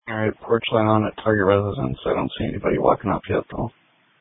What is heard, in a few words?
Police Tactical Encrypted